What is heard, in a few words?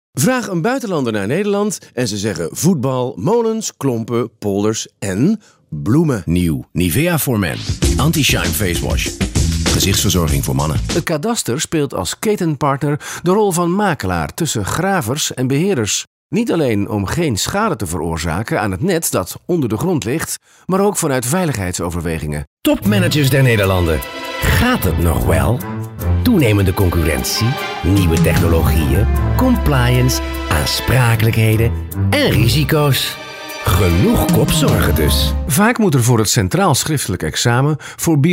dutch narration